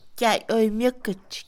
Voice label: lisiado, Mixe, Mujer; INALI

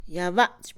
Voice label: repartir, Mixe, Mujer; Posesión; INALI

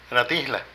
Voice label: Pelear, Zapoteco de Petapa; Vocabulary

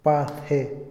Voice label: té, Otomí, hombre; Moderno; INALI

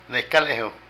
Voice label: El mismo, Zapoteco de Petapa; Vocabulary

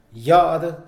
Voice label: "esto", Mixe, hombre; INALI

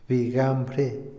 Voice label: sorprendido, Otomí, hombre; Emociones; INALI

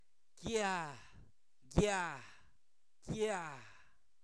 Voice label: Zapoteco Albarradas, santo domingo albarradas; piedra; objetos inanimados

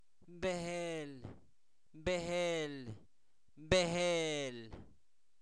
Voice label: Zapoteco Albarradas, santo domingo albarradas; pescado

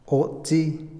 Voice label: "agujero", Otomí, hombre; Espaciales; INALI

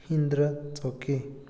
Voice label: inocente, Otomí, hombre; INALI

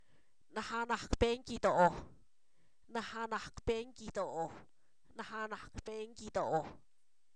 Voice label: reconocimiento religioso, Zapoteco, san miguel albarradas; sustantivos

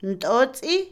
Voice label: cama, Otomí, mujer; Casa; INALI

